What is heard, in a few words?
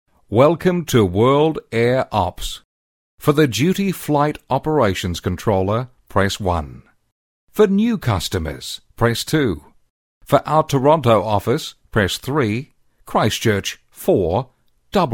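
English New Zealand, male, voice over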